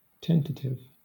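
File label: "tentative" English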